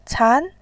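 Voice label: "can", Mandarin Chinese, tone 2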